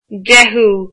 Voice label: "tenth", Irish

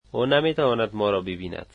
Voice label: he cannot see us, dari

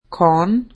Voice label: horse, slovene

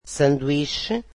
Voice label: portuguese sandwich

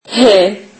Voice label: turkish alphabet h

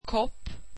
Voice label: swedish cup

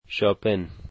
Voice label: "Japan", dari

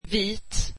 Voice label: swedish white

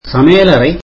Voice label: tamil kitchen

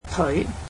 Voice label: "leg", Cantonese

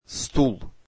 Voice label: russian chair

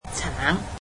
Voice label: "oranges", Cantonese

